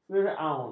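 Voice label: "firaun", Malay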